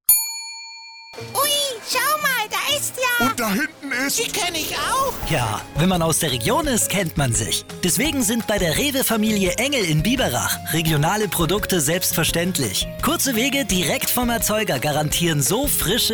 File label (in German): Werbung Radio mit Reminder